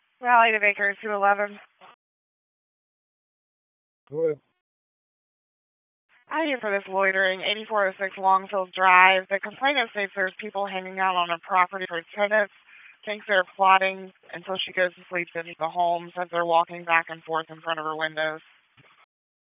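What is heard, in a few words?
Police Dispatch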